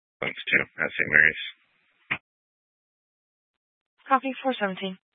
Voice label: Fire Department Dispatch, scanner; Grand Junction